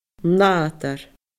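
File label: "nàdar", Scottish Gaelic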